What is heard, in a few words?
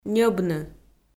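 "ньӧбны", Komi, female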